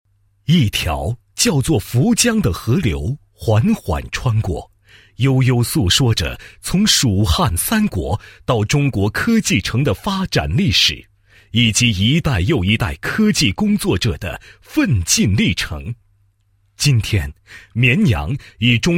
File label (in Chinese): 男 汇报, 配音; 科博会青少年科技体验周纪实